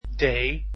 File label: "d", Dutch alphabet